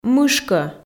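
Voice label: "мышкӧ", Komi, female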